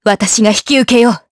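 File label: voice trs, voices heroes, Japanese; Seria; kingsraid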